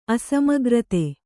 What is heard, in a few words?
"ಅಸಮಗ್ರತೆ", Kannada